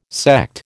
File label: "Sekt", American